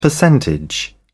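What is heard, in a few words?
"percentage", British English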